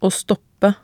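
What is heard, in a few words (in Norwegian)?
"å stoppe", Norwegian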